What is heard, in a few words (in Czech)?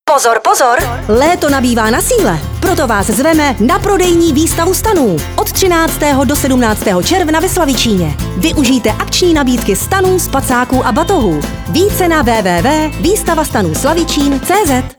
reklamní spot rádio; zlin pozvanka vystava stanu